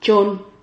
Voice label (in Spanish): "chon", Silabas